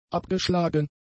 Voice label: "abgeschlagen", German; adjectives